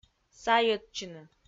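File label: "сайӧдчыны", Komi, female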